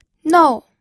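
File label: "nou", fourth tone, pinyin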